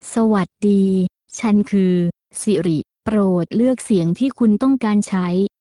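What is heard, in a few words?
Thai; iOS 26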